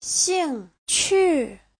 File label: "xing4 qu4", Chinese